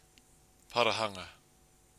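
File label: "parahanga", Māori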